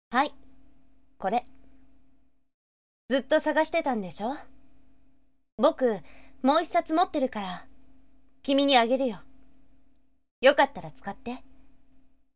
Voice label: sample boy